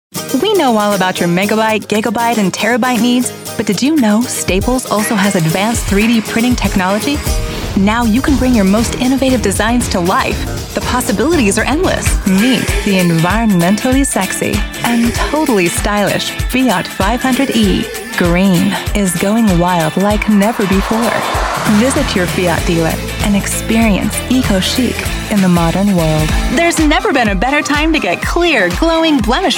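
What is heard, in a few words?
english US female commercial; voice demos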